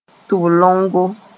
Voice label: "tʋb-longo", moore; earring